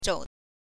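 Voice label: "zhou", Mandarin Chinese, neutral tone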